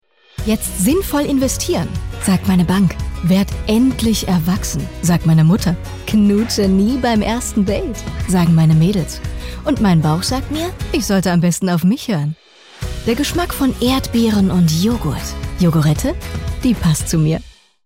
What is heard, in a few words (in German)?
Werbung Frisch